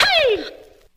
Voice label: "hey" cartoon; ooh ahh